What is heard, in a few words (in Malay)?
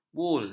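"bul", Malay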